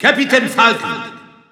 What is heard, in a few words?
French Announcer; Captain Falcon; SSBU